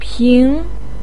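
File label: "ping2", Mandarin Chinese, pinyin; 坎坷不平; kǎn kě bù píng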